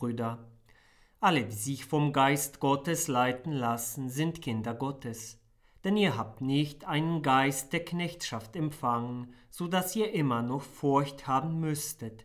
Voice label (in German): Lesung; Dreiflatigkeitssonntag B